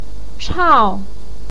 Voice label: "chao4", Chinese pinyin voice